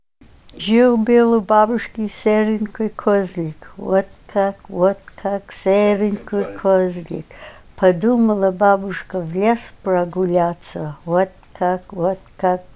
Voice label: babushka poem, Ninilchik Russian; Russian poem for children; Zhíl-bil u bábushk'i séran'kay kózl'ik. Wot kak, wot kak, séran'kay kózl'ik. Padúmala bábushka w l'es pragul'átsa. Wot kak, wot kak, w l'es